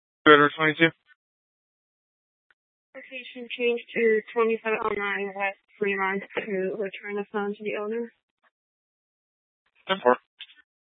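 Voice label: Tempe Police Department, scanner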